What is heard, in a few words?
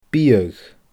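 "biadh", Scottish Gaelic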